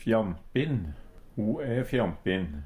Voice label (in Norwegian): "fjampin", Numedalsmål; Ho e fjampin.